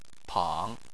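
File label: "pang" third tone, Chinese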